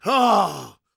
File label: PREACHIN, Gospel, PREACHER; Vocal Planet Vol1 Gospel